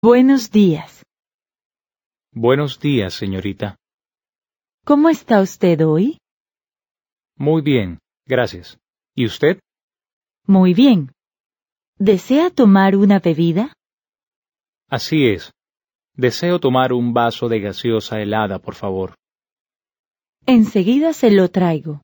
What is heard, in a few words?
Dialogue, Spanish